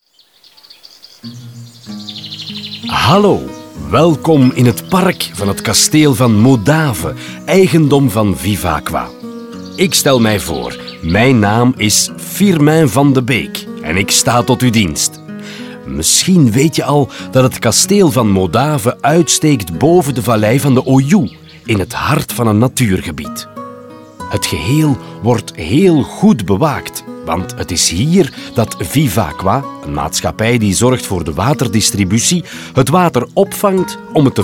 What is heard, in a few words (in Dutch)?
audioguide nl enfant; modave